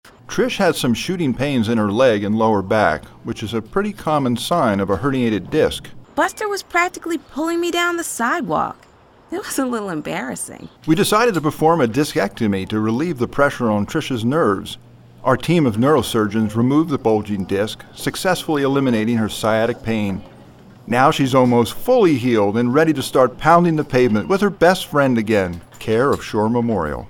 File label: Hospital Commercial; Shore Memorial Hospital